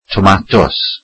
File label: "tomatoes", Welsh